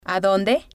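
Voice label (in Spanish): "adonde", spanish, female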